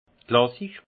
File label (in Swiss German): "klassisch", alsace; vin; classique